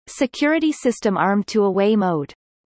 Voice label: current away, de-DE

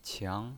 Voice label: "qiang2", pinyin

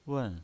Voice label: "wen4", pinyin

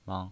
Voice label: "mang0", pinyin

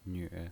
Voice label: "nve3", pinyin

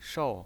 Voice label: "shou4", pinyin

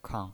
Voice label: "kang", pinyin voice

